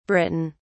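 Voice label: "britain", British English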